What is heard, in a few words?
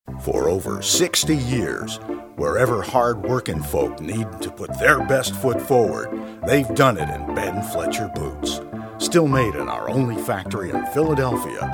Commercial Boots; voiceovers